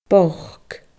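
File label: "boc bochd", Scottish Gaelic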